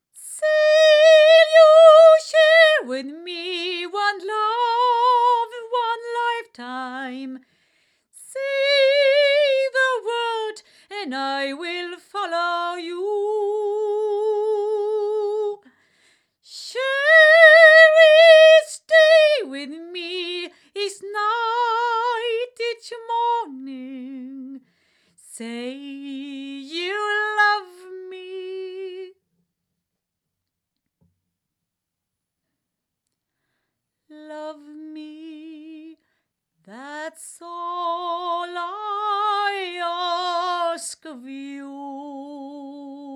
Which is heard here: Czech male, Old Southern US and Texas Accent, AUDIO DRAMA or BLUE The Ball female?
BLUE The Ball female